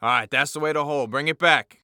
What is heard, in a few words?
Announcer Match Payload Enemy Failed